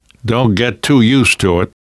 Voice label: you are welcome, namvet, survivor voice